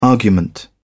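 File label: "argument", British English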